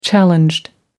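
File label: "challenged", British English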